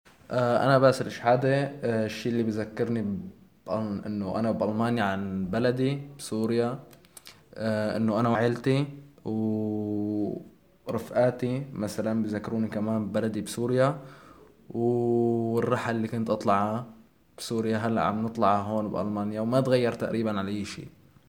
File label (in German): arabisch